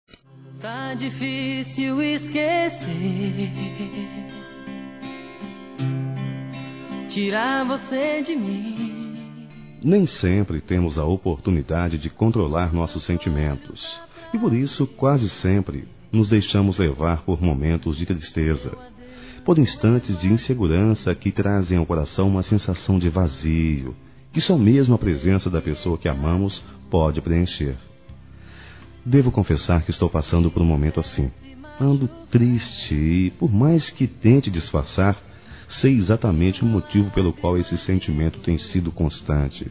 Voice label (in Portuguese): SAUDADE ROMANTICO M; Telemensagens; Saudades